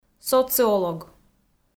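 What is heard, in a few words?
"социолог", Komi, female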